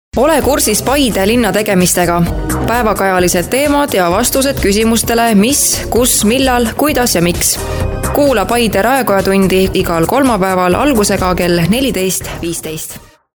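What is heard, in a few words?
Estonian, female, commercial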